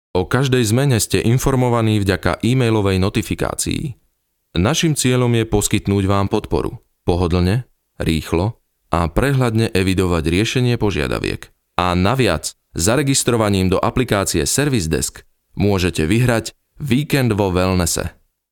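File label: slovak male narration; voice demos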